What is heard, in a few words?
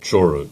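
"Truro" Cornish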